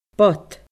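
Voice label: "bod", Scottish Gaelic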